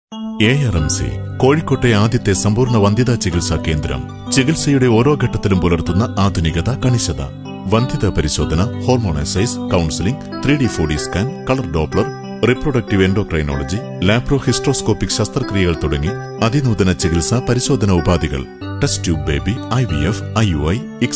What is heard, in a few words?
Advertisement; ARMC; kims multi speciality hospital